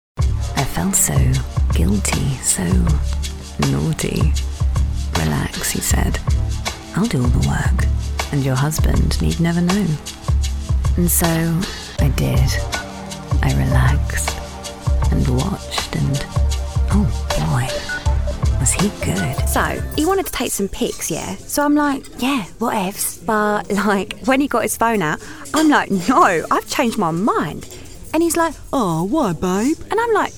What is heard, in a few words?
Commercial